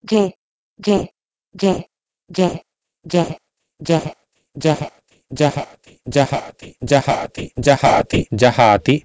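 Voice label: Proto-Indo-European gheh1 to Sanskrit jahaati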